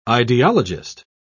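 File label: "ideologist", English, British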